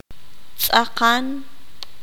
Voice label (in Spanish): parejo, qanjobal